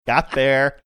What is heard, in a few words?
saying got there sing songy